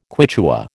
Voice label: "Quichua", American